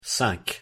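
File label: pronunciation "cinq", French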